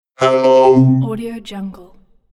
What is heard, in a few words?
robot saying hello